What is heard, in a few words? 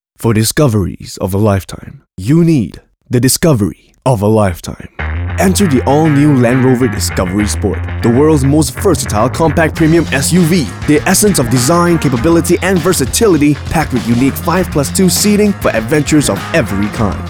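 English; JLR; DISCOVERY SPORT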